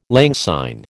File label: "lang syne", American